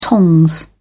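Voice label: "tongs", British English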